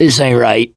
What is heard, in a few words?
going to die, survivor voice mechanic